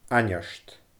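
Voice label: "ainneart", Scottish Gaelic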